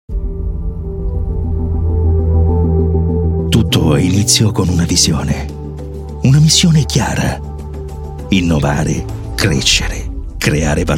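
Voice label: Italian, Corporate; GoLocalise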